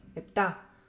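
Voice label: "epta", female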